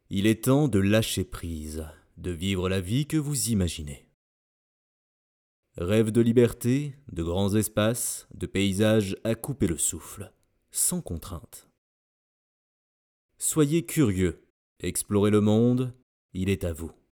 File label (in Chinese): 法语男旁白慢速